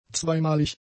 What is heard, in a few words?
"zweimalig", German; adjectives